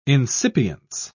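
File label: "insipience", British English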